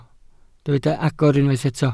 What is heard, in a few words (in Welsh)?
"agor", Welsh